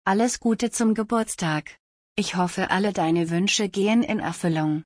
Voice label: Happy birthday I hope all your wishes come true in German; تولدت مبارک به آلمانی